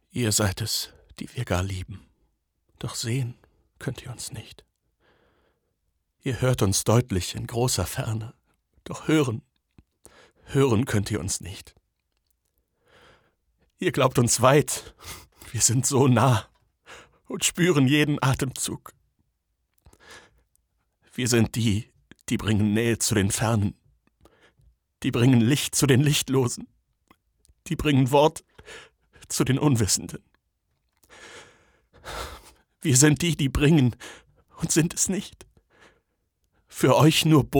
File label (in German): traurig, maenner